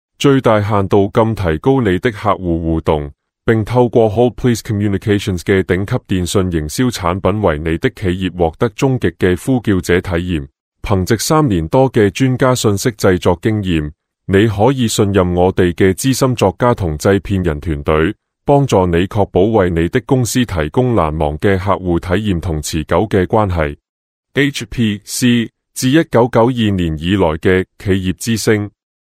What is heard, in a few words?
Cantonese Male ai; Yunsong